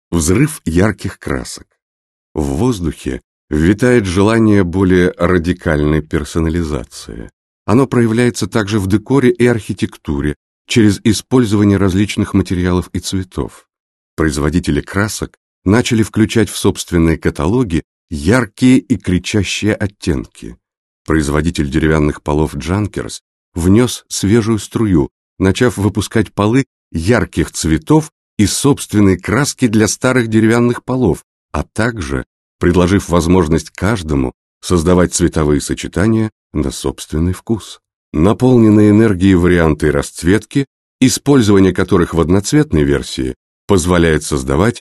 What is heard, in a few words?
russian male